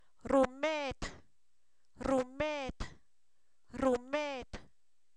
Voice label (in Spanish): Zapoteco, san miguel albarradas; remedio; enfermedades